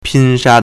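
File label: "pin1sha1", Mandarin Chinese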